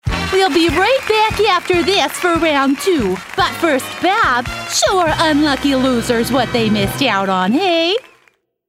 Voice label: ANIMATION GameShowHost MidWest